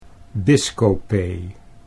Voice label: "biskopee", Dutch

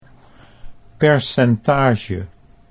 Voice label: "percentage", Dutch

